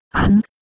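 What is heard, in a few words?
"h2nk", Proto-Indo-European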